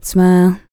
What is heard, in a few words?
FEM. SPOKEN; R&B Dance